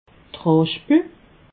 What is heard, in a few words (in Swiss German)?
"raschpel", alsace